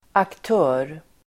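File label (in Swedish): "aktör", swedish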